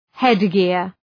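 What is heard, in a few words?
"headgear", English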